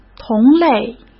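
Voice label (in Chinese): "同类", Mandarin Chinese; hsk; chinese flashcards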